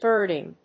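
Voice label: "birding", English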